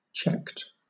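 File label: "checked", British English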